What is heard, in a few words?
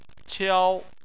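"qiao", Chinese, tone 1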